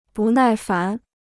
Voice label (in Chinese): "不耐烦", Chinese